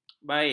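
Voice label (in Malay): "bea", Malay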